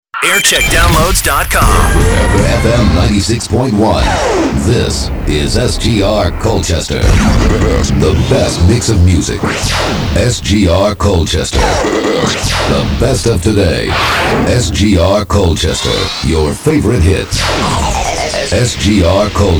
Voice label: imaging; sgr